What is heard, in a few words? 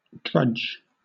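"drudge", British English; pronunciation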